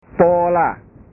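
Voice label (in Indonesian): "pola", Indonesian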